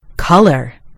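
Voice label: "color", American English; gcompris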